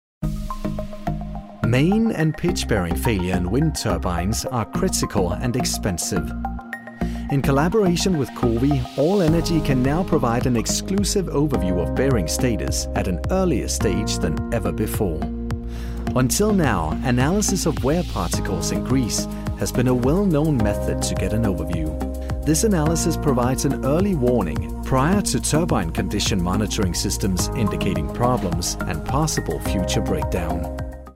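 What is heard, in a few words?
Danish, male, English Corporate friendly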